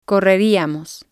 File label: "correriamos", Spanish